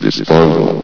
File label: "disposal", vox; Source Engine; bshift